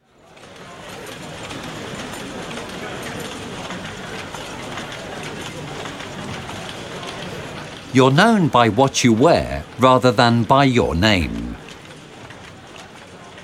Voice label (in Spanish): audioguia; fabrica textil engl